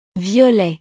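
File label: "violet", French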